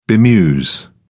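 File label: "bemuse", British English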